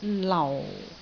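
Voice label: "lau4", Cantonese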